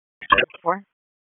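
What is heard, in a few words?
Dispatch 1, P25; wake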